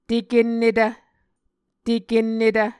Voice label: "tekennetv", Muscogee